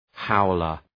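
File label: "howler", English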